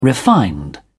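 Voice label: "refined", English, uk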